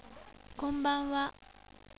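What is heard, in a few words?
"konbanwa", Japanese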